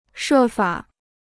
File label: "设法", Chinese